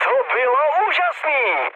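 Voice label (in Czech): Czech trick, mood high; speech; War Thunder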